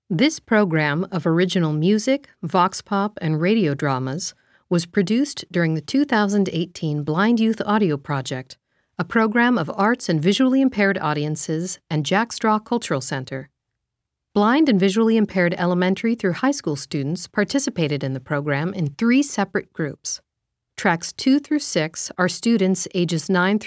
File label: Opening Narration